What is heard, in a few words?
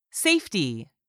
"SAFETY", english; 3000 words